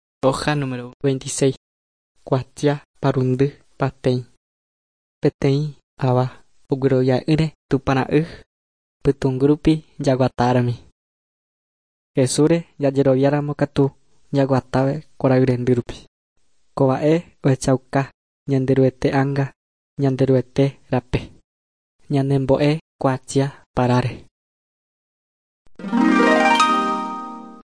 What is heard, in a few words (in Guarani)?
guarani mbya; good news and words of life; bible